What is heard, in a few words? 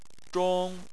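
"zhong" Chinese, first tone; Chinese Surnames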